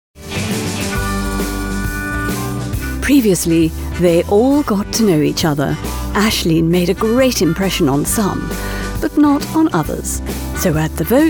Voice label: Trailer; Coach Trip

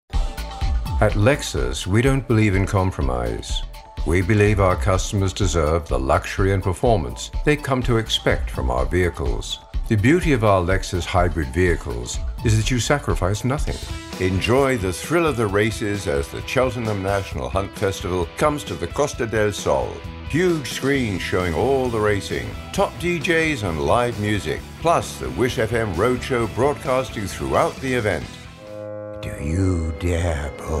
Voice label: VOICE-OVERS

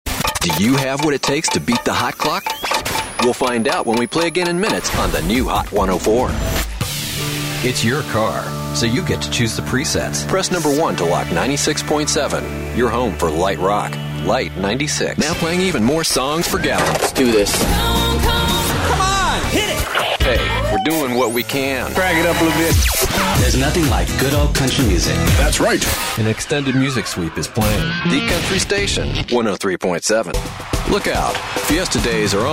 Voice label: Imaging

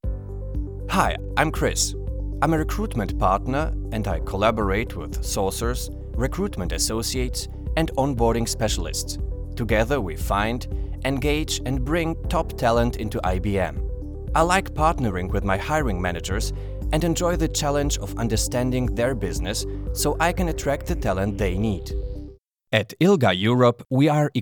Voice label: ENG

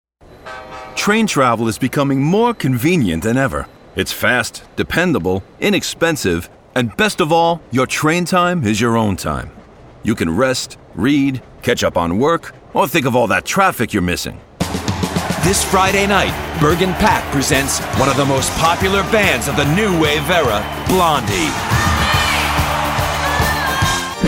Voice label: COMMERCIAL

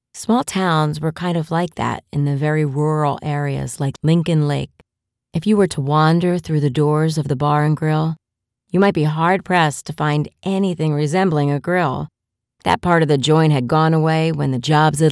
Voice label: Audio Book

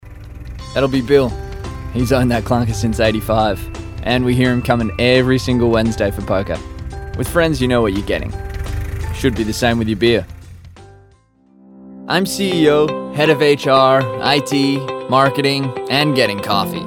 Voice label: Voiceover

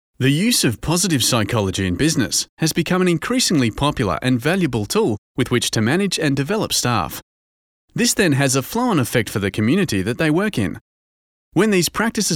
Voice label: Corperate

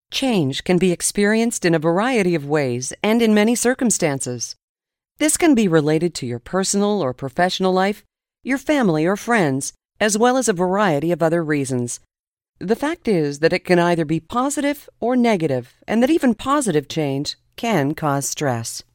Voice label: narrator

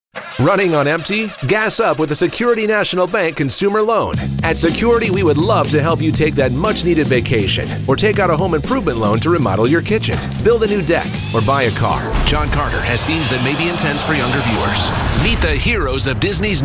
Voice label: Radio Commercials

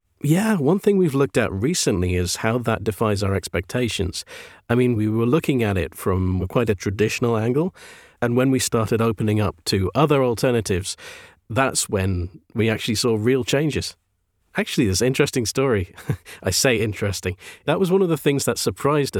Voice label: Natural Speech

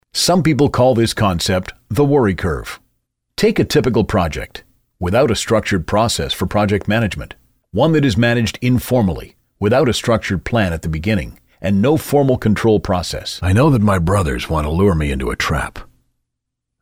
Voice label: E Learning

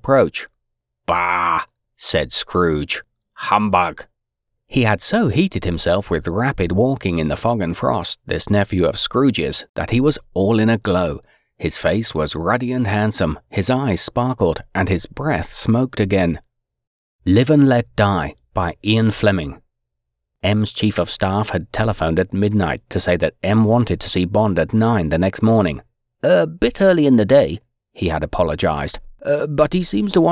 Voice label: Audio Books Fiction Voice-over Stereo

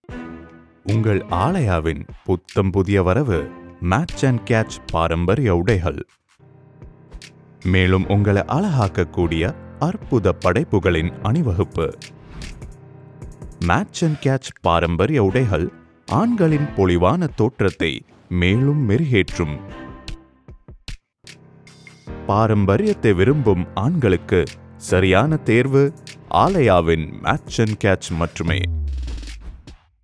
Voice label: Tamil Commercial

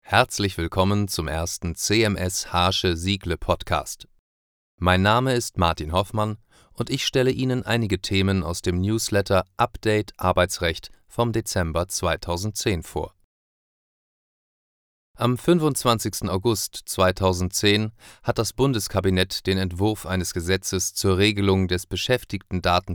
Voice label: german political

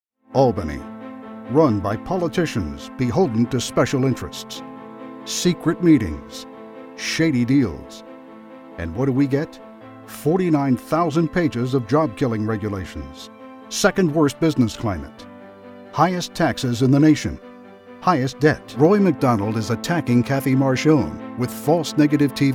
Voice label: POLITICAL TV

